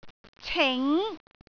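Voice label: "cing2", Cantonese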